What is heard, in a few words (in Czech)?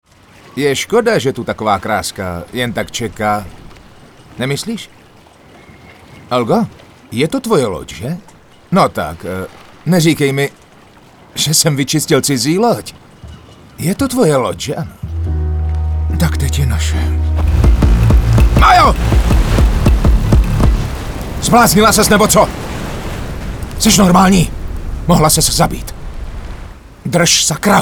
ukazka dabingu